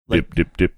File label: saying dip dip dip deep voice